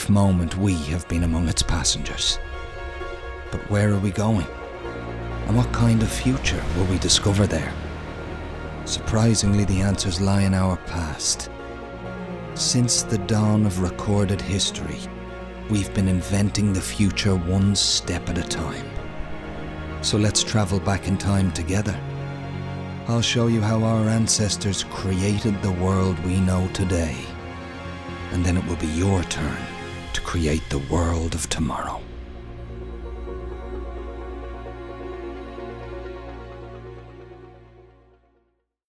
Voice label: SPACE INTRO NARRATION